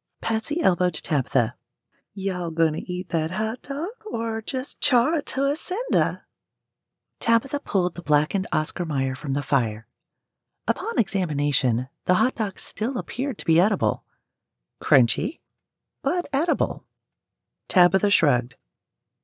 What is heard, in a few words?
Teen Girls